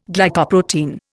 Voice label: "Glycoprotein", Indian